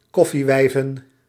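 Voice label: "koffiewijven", Dutch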